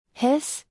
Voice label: "hiss", English; звуки животных на английском; кошка; Salli

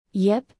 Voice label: "yip", English; звуки животных на английском; маленькие собачки; Salli

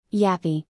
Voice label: "yappy", English; звуки животных на английском; Salli

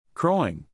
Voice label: "crowing", English, neural; animal sounds in english; Roosters; Stephen

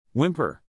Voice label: "whimper", English, neural; animal sounds in english; scared or sad dogs; Stephen

